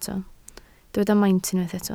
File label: "maint", Welsh